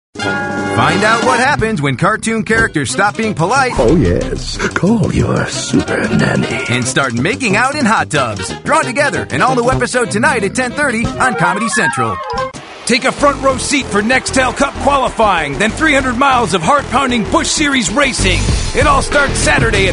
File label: promo